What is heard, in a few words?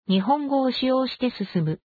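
Japanese chosen; ja JP; VoiceView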